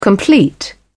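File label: "complete", British English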